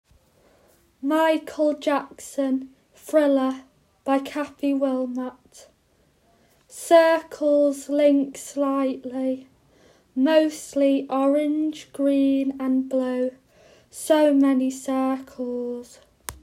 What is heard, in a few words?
audio description